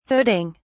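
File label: "hooding", British English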